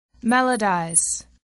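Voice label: "melodize", English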